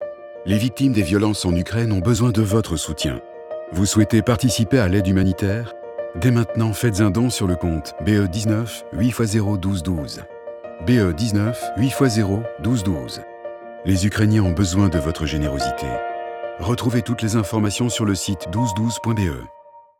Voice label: spot radio; consortium